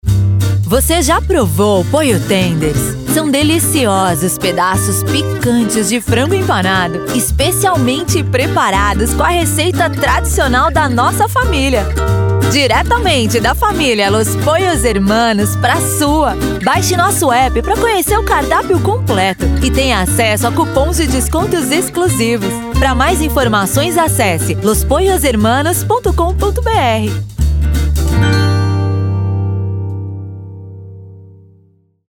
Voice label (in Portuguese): Locucao; LosPollos